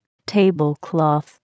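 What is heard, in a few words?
"tablecloth", English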